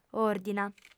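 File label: "òrdina", Sardinian, female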